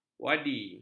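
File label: "wadi", Malay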